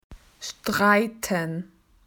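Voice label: "argument", Australian English; PictureDictionary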